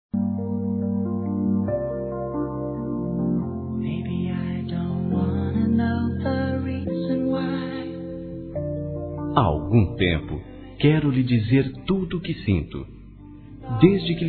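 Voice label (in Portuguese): CONQUISTA, masculino; Telemensagens; Conquistas Cantadas paqueras; Telemensagens 2017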